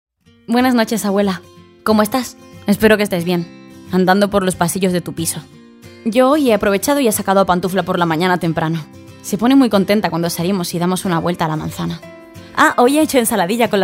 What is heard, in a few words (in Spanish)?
Amable, español castellano; Genérica